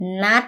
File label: appointment, Thai